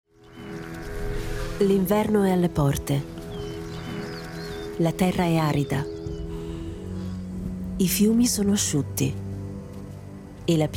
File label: female, Italian documentary extract; africa s hunters episode 1